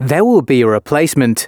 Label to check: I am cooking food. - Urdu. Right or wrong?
Wrong